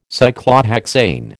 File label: "Cyclohexane", American